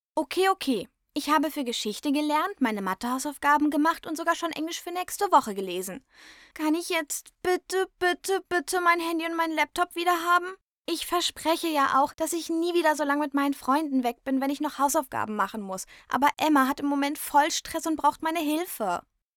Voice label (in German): Teenager